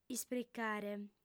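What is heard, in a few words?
"ispricare", female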